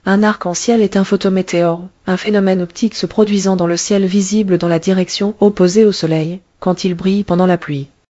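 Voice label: speaker, American English; piper-voices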